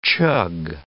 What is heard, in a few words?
"chug", English